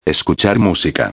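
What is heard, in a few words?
"escuchar musica", Spanish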